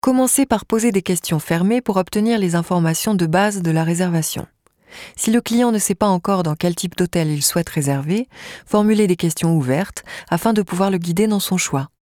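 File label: fr elearning, French; sprecher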